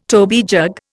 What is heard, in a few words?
"toby jug", Indian